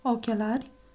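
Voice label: "ochelari", Romanian; ktuberling; tdegames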